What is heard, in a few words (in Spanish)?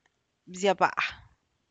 frijol sin caldo, Zapotec; bzia bá[bean without soup]; beans without soup